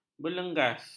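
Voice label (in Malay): "berlengas", Malay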